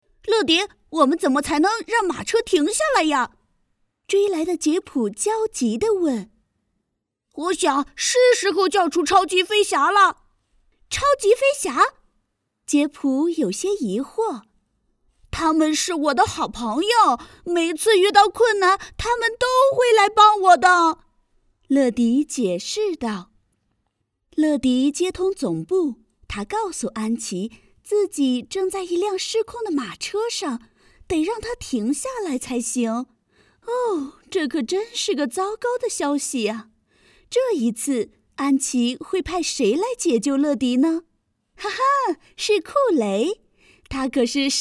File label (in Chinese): 儿童绘本外译故事